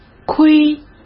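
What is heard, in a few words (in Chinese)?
"亏", Mandarin Chinese; hsk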